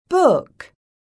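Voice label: "BOOK", English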